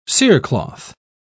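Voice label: "cerecloth", British English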